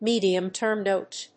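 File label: "medium term note", English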